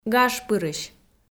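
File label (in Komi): "гажпырысь", Komi, female; audio dict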